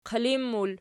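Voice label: "qilin" plural, Archi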